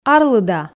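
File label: "арлыда", Komi, female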